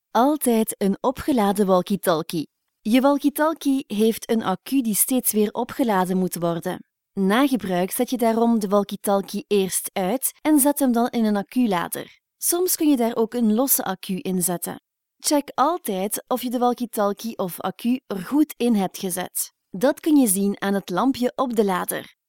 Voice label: Walkie Talkie e-learning Olandese